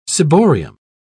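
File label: "ciborium", British English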